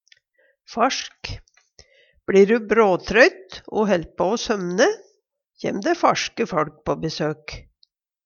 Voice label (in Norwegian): "fasjk", Numedalsmål